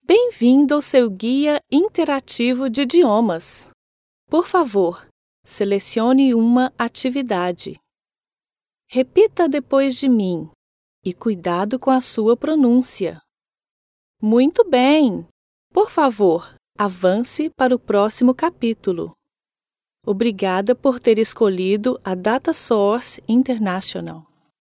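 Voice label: Brazilian female corporate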